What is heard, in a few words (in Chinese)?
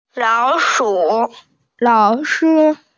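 "lao3shi1", Mandarin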